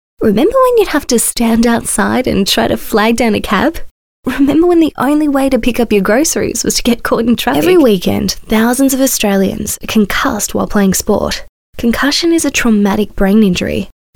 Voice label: Natural